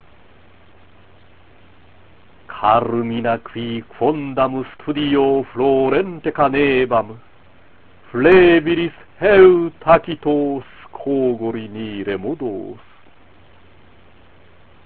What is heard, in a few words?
Recitatio, latin